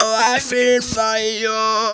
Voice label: "feel for you", Funky Vocals, girls; USB Soundscan vol.18; AKAI; TB GIRLS